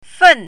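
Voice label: "fen4", Mandarin Chinese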